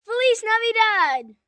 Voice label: Spanish Christma, Occasions, 1 boy, 10 yrs., Spanish; Holiday